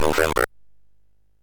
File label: MOS6581R3, Electronic Speech Systems